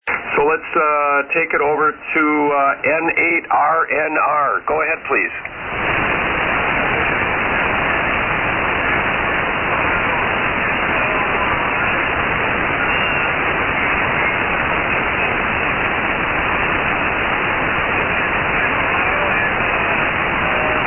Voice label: Tempo One & D-104 Mic; Kenton, OH.

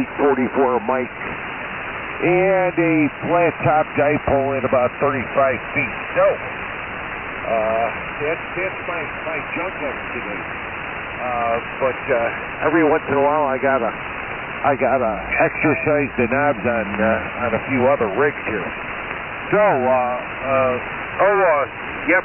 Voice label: Tempo & YD-844 Mic; New Lenox, IL.

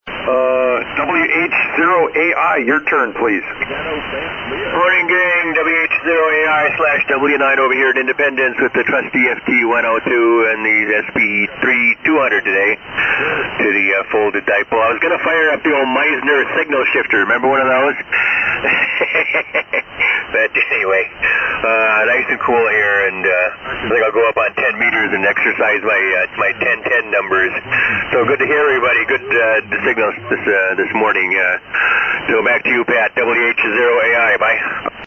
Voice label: Yaesu FT-102 & SB-200 Amp; Independence, Wi.

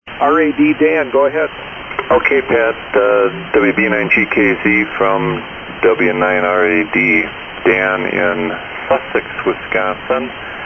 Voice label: Yaesu FT-101E Shure 444 Mic; Sussex, Wi.

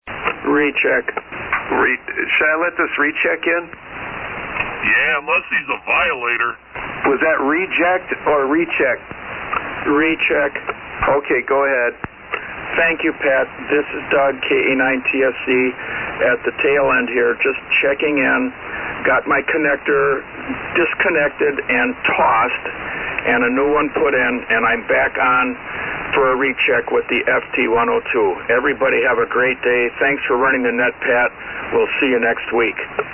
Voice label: Yaesu FT-102; Caledonia, Wi.

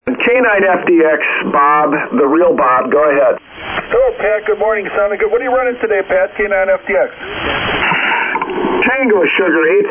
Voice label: Kenwood TS-820 Shure 444 Mic; Colgate, Wi.

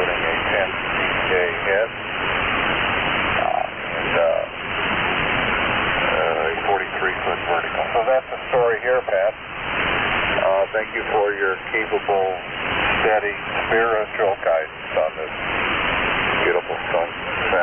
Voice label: Drake C Line Astatic Mic & Palstar Amp; Whitestown, IN.

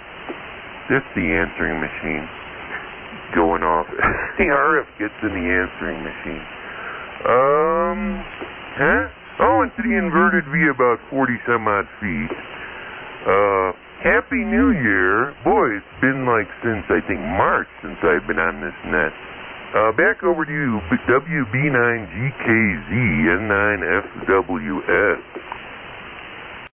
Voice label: Tempo One & Heathkit SB-200; Lockport, IL.

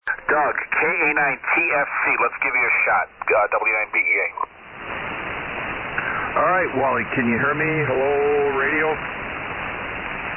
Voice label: Icom 761A & 4-250 Amp; Caledonia, Wi.

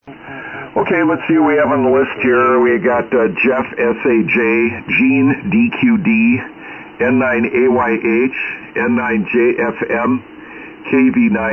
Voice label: SSB Net Check Ins

